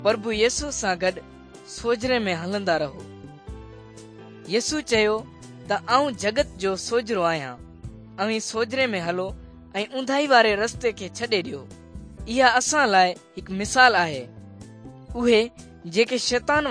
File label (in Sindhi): good news sindhi dukslinu, drama; bible; pakistan; sindhi pakistan nt drama